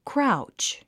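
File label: "crouch", English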